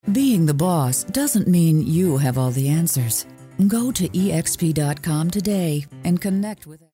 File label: classy